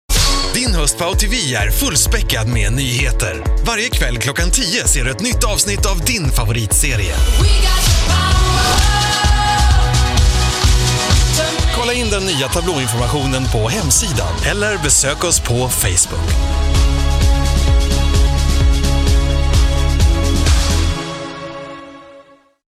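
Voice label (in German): Swedish, Kraftvoll; Fremdtake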